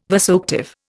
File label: "vasoactive", Indian